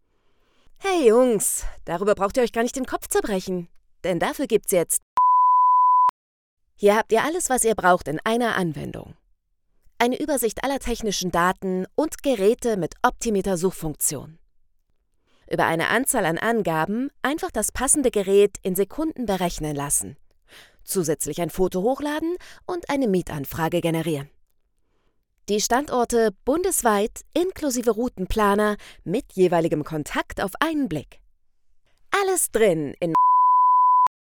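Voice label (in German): Werbung App, sprecherin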